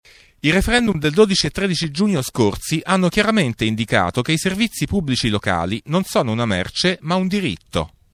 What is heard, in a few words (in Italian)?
spot acqua voce